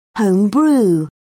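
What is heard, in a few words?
"home brew", British English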